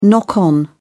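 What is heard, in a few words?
"knock on", English, British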